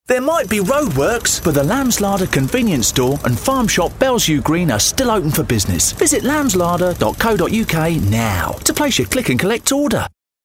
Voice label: radio ad